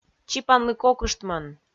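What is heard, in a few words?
"чипанлы кокыштмӧн", Komi, female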